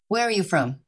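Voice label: VoiceActing Spanish, female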